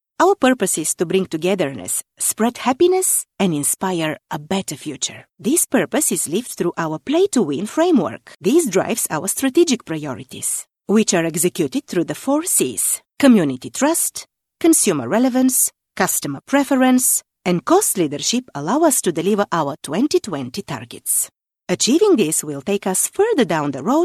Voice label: Corporate English